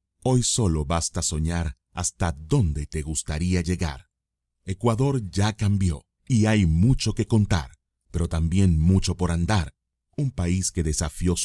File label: Tourism campaign; Ecuador; Spanish - Spain (Castilian)